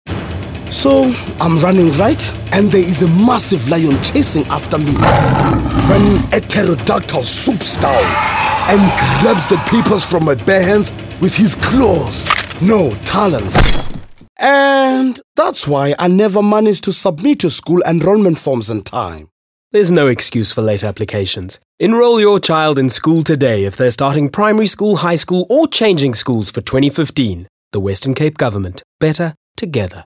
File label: Enrolment Radio Eng